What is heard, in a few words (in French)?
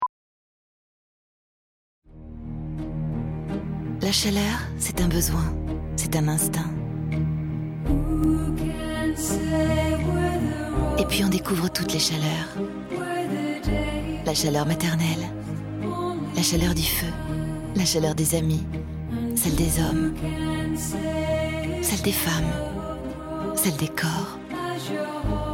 Voix off Douce; Damart